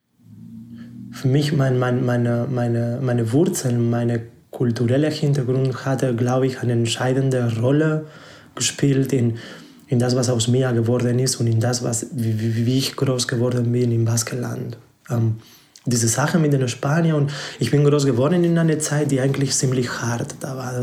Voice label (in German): spanisch baskenland